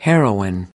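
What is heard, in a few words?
"heroin", American English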